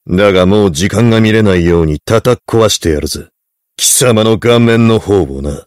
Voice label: heavy taunts